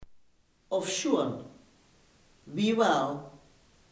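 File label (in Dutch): hoewel, Limburgse gesproken woordenboeken; hoewel, ofsjoean, wiewaal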